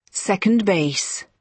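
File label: "second base", British English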